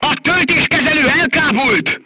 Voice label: voice message charger contused, crew tanks hungarian